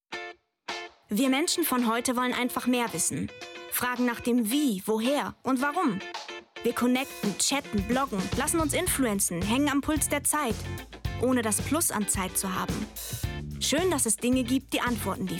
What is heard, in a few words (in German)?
Werbung verbindlich